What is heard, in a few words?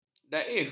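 "daif", Malay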